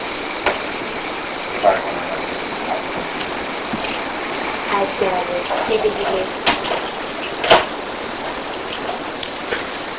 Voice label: Daughters Bedroom Unexplained Little girl voice (I found it) with a giggle AMPLIFIED